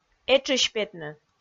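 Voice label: "этшысь петны", Komi, female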